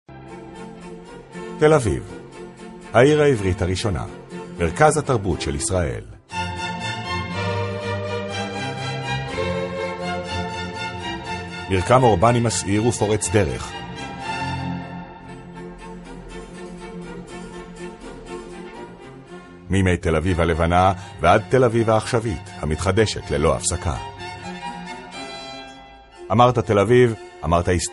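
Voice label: Hebrew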